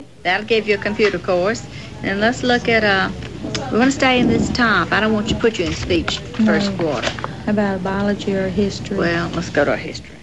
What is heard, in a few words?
Alabama female, dialect